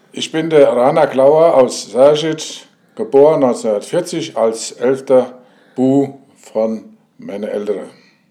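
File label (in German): vorstellung, Hunsrück; Sargenroth; stellt sich vor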